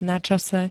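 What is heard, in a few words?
"načase", Slovak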